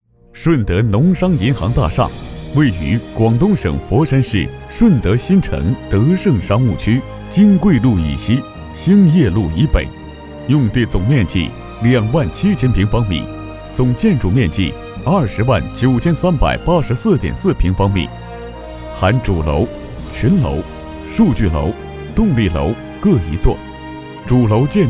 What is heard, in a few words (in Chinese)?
男 专题 大气规整; 顺德农商银行大厦; putonghua